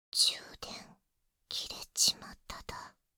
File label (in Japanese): 充電 切れちまっただ